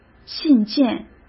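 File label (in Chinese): "信件", Mandarin Chinese; hsk; chinese-flashcards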